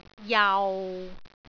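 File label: "jau6", Cantonese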